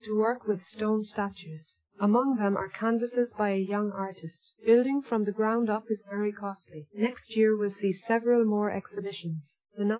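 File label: female speech; SiSEC10; underdetermined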